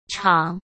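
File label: "厂", Mandarin Chinese; chinese-flashcards; hsk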